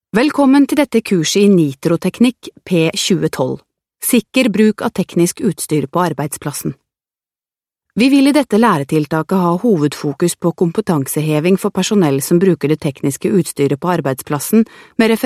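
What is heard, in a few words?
Norwegian eLearning Sample, Norwegian Female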